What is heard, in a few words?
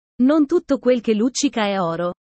italian sayings about life